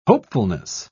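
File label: "hopefulness", British English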